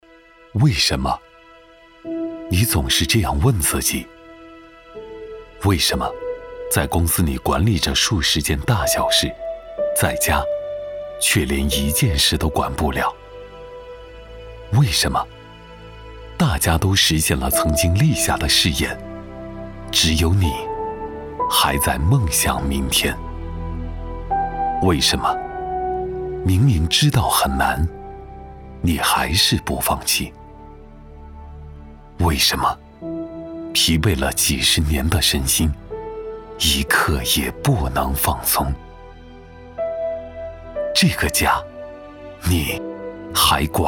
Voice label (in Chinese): 男 大气走心 航空航天